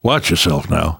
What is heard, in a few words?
warn careful, namvet, survivor voice